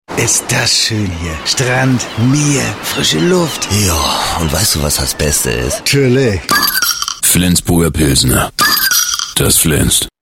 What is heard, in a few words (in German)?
norddeutsch flensburger